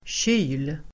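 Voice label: "kyl", Swedish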